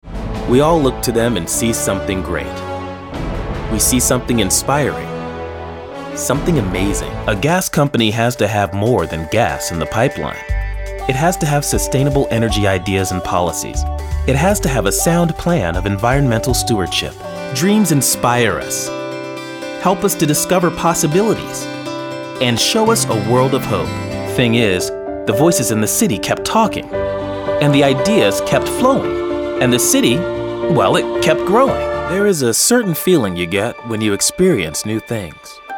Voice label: Inspirational Call To Action

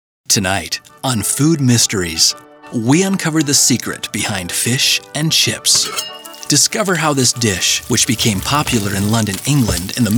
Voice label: Imaging

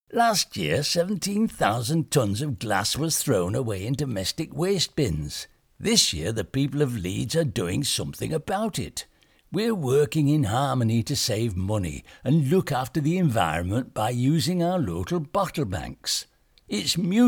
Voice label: Yorkshire accent

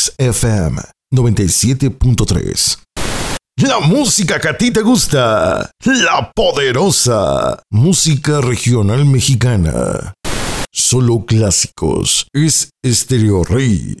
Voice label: radio ids

